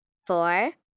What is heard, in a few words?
Numbers Four, 1 child, girl counting; Talking Sound Effects